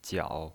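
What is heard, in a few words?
"jiao", third tone, pinyin voice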